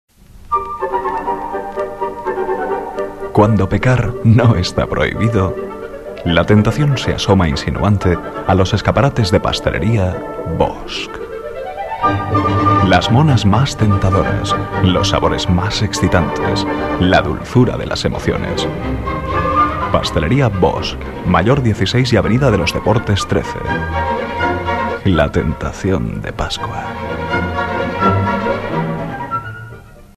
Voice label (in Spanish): Publicidad Radio voces comerciales musica; Pasteleria Bosch